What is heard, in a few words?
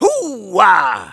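positive vocalization, German; "Hooah!"; Soldier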